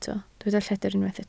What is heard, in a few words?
"lledr", Welsh